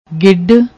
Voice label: "gid", Punjabi